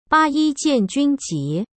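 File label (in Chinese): "八一 建军 节", Chinese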